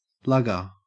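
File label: "lugger", Australian English; pronunciations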